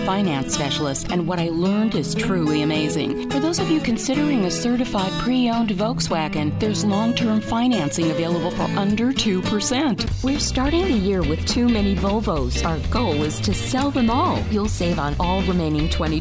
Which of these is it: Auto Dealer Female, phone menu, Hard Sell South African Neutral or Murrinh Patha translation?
Auto Dealer Female